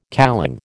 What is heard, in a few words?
"kalong", American